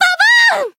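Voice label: Voice finish, Japanese; bivette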